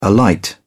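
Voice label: "alight", British English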